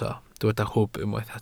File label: "hwb", Welsh